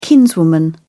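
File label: "kinswoman", British English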